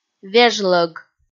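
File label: "вежлӧг", Komi, female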